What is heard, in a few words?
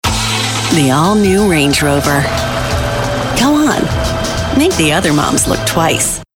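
American English, female, commercial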